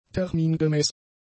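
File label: "termingemäß", German; adjectives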